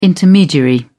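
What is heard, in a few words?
"intermediary", British English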